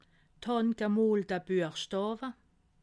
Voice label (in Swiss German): "handgemoolte", alsace